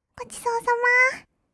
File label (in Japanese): "ごちそうさま", kawaii voice; ASMR; 挨拶; 1200 kawaii voice!!